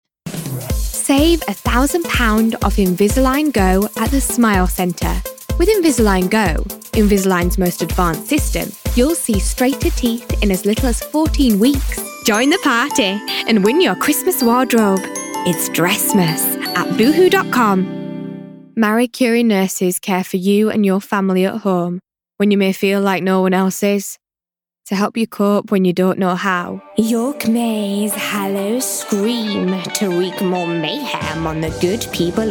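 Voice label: English, regional, female, ads; voice over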